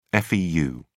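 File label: "feu", English, British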